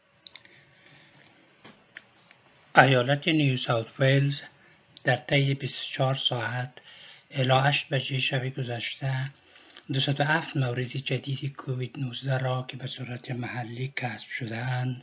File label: dari; covid-19 coronavirus; covid-19 daily key points